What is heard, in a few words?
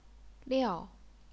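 "liao", Chinese, fourth tone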